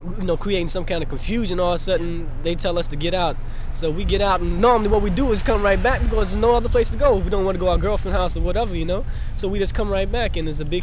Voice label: New York interviews